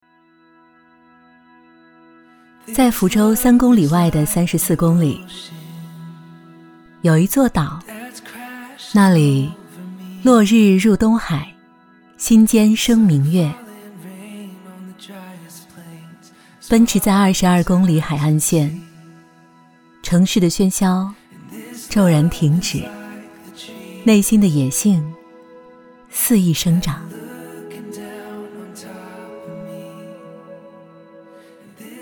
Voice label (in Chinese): 女 纪录片 偏年轻感; 印山海